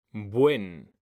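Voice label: "buen", Spanish, male; mekanta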